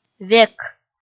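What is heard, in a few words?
"век", Komi, female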